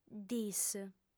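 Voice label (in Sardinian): "ddis", female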